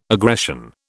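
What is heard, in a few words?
"aggression", British English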